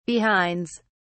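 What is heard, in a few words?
"behinds", British English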